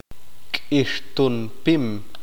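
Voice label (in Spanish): "cacto", Spanish, male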